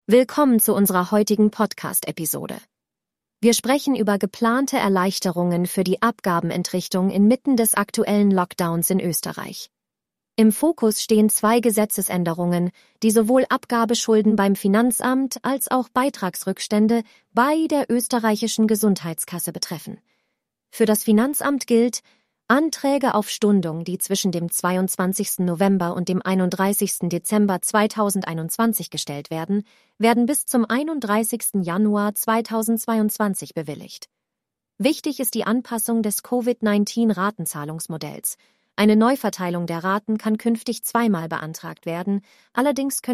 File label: synthesized audio